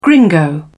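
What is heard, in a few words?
"gringo", British English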